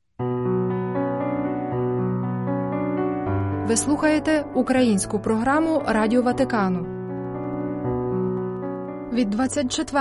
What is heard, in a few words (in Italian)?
ucraino; vaticannews